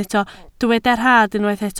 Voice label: "rhad", Welsh

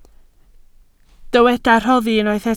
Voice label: "rhoddi", Welsh

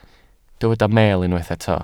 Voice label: "meel", Welsh

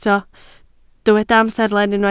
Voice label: "amserlen", Welsh

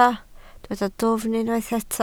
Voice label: "dwfn", Welsh

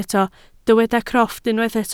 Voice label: "crofft", welsh

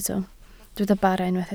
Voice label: "bara", Welsh

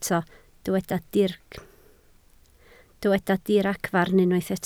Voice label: "diragfarn", Welsh

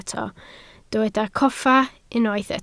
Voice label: "coffa", Welsh

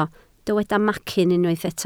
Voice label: "macyn", Welsh

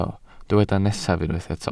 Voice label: "nesaf", Welsh

